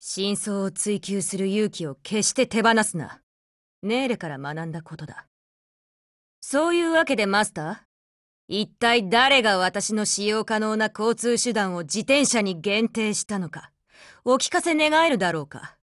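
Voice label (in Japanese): dialogue, Japanese; APC556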